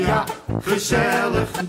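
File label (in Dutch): "gezellig", Dutch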